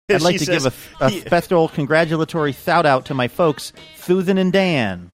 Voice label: I'd like to give special congratulatory shout out lisp